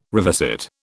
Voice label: "revisit", British